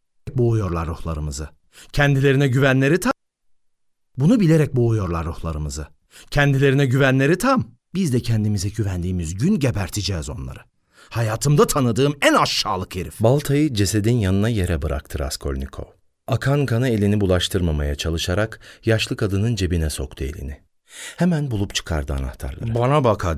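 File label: turkish male voice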